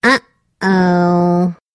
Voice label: OH Huooo SMS Ringtone

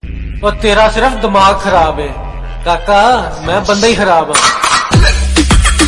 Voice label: Killer Attitude Hindi Dialogue Ringtone

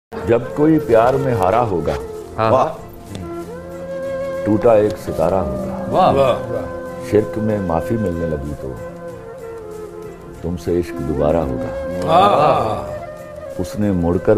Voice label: Shayari Ringtone; Jab Koi Piyar Mai Hara Hoga

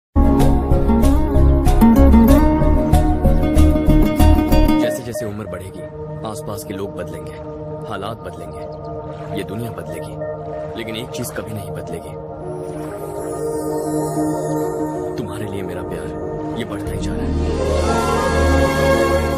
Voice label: Romantic Hindi Dialogue Ringtone; The Super Khiladi 3

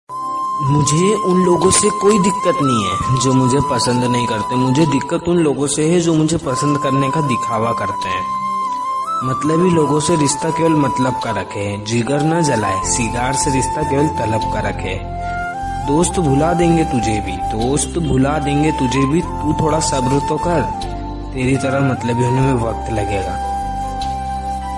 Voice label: Shayari Ringtone

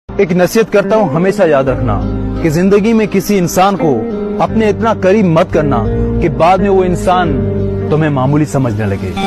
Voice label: Dialogue Ringtone

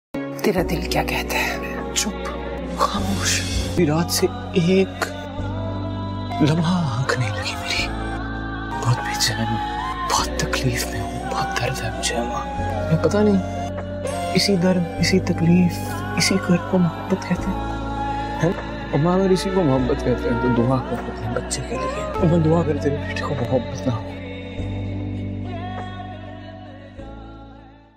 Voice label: Dialogue Ringtone; Isi Dard Isi Takleef Ko Mohabat Kehte Hai; Dad Dialogue

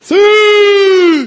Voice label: "SIUUUU" Notification Ringtone

